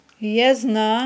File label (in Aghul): "язна", Aghul